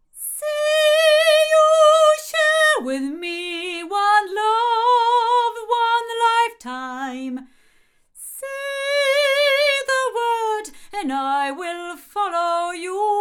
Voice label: Sontronics Helios omni female, microphones recordings